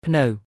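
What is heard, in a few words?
"ព្នៅ", Khmer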